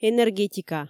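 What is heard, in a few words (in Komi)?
"энергетика", Komi, female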